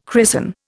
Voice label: "christen", Indian

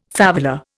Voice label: "favela", Indian

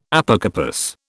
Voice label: "Apocarpous", British

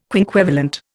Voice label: "quinquevalent", Indian

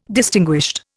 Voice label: "distinguished", Indian

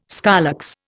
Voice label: "scolex", Indian

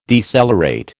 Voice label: "decelerate", American

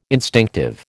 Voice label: "instinctive", American

